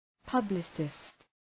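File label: "publicist", English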